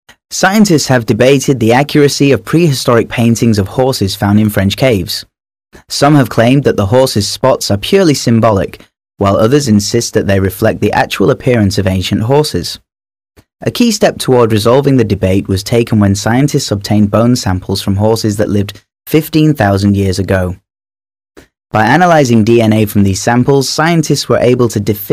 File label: British M, British English